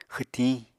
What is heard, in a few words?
"xwtiñ", Apatani